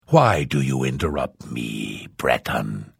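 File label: Hello, Vo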